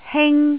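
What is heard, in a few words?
"hing", Cantonese, tone 1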